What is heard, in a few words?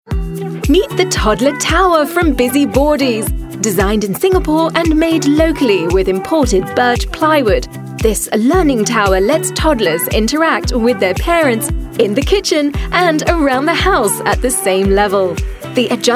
UK English; Toddler Tower